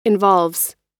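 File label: "involves", American English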